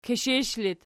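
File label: "kishish" locative, Archi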